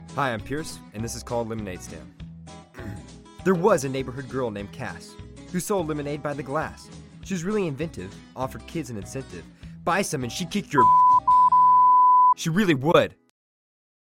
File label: Voiceover